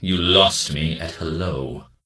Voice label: Death Knight Male Low Pissed